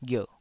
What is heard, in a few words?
"ghierh3", Taiwanese syllable